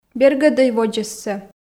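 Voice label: "бергӧдӧй водзӧссӧ" Komi, female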